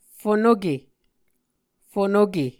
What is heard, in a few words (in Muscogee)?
"fonoke", Muscogee